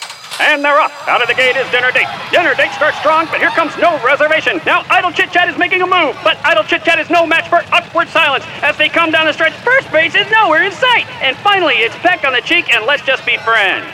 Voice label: Race Announcer